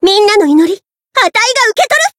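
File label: limit break, Japanese; harle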